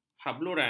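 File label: "habluran", Malay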